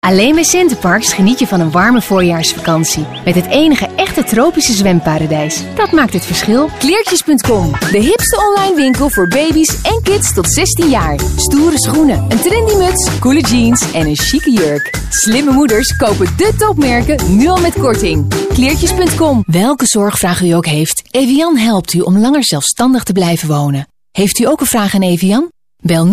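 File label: Dutch female commercial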